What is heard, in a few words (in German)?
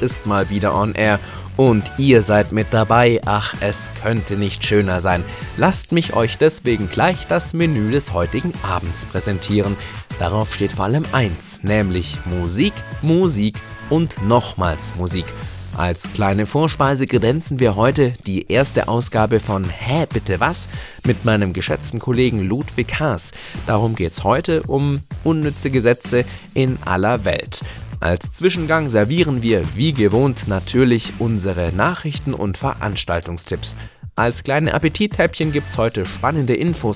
Sprechprobe Mod Sendungsopener Radio; Radio Pegasus